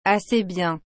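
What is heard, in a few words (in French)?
"Assez bien", French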